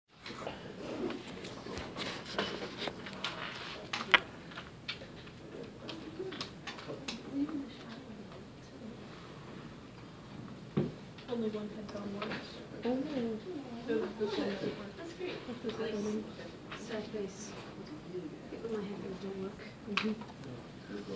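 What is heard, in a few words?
Classroom Talk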